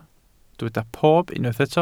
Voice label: "pob", Welsh